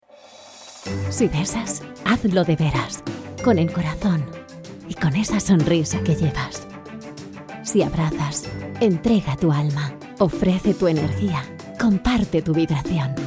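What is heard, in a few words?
Spanish, female, commercial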